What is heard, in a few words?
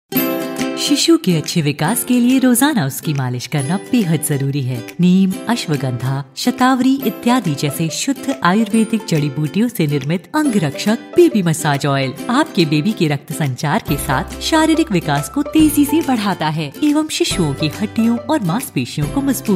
in commercial hindi